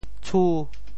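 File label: "tshu5", Teochew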